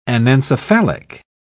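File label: "anencephalic", British English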